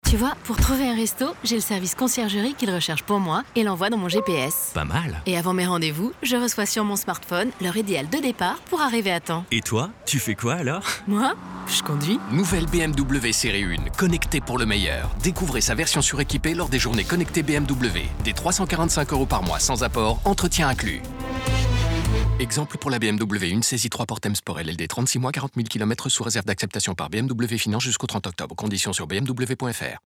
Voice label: RADIO; BMW SERIE 1 CONNECT

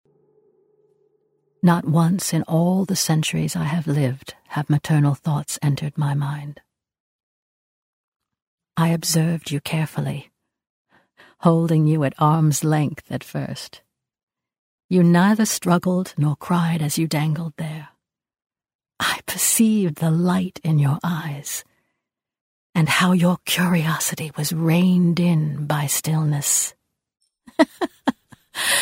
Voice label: Podcast; Athena

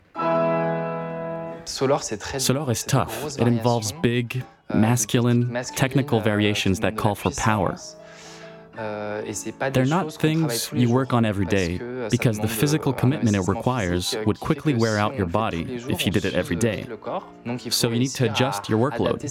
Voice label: Voice over docu, US; Opera; Arte

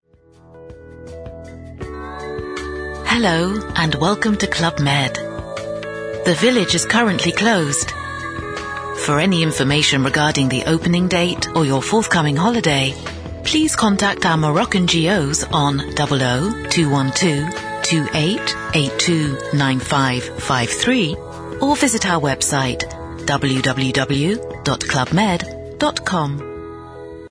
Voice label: on hold; club med

